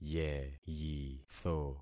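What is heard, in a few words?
"yeyitho", concatenated syllables; Affixing experiment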